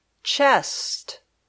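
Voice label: "chest", English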